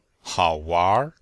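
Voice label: "hao wanr", Chinese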